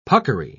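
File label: "puckery", British English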